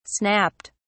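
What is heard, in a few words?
"snapped", British English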